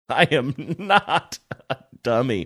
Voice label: laughing saying i am not a dummy